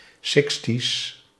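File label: "sixties", Dutch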